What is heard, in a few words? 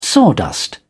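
"sawdust", English, British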